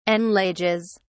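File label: "anlages", British English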